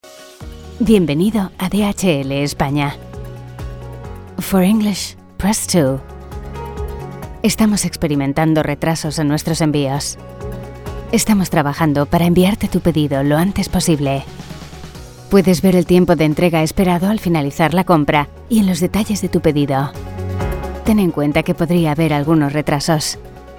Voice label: IVR CORPORATE; DHL